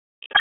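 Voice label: Police District Tactical, p25; Raleigh; Northeast; Tac 1; wake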